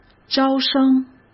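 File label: "招生", Mandarin Chinese; hsk; chinese-flashcards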